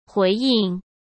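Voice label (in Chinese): "回应", Mandarin Chinese; hsk; chinese flashcards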